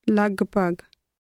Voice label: approximately, punjabi; English: approximately